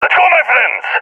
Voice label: request move, balkan; balkan epic